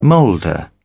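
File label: "molder", British English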